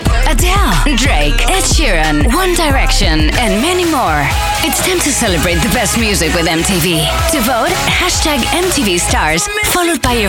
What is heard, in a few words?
english mid-atlantic